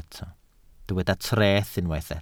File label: "treth", Welsh